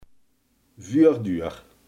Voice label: "voordeur", limburgse

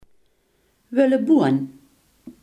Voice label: "tuinboon", limburgse

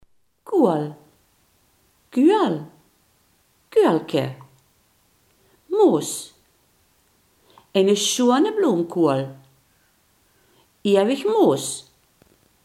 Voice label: "kool", Limburgs

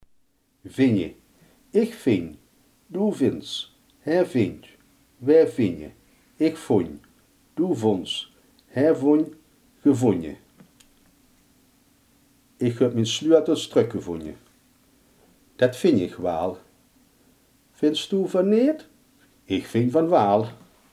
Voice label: "vinden", limburgse

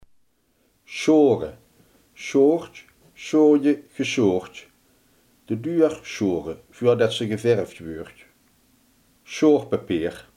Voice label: "schuren", Limburgs

